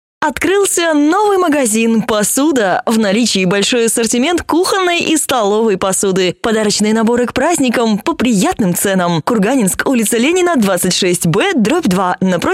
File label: fast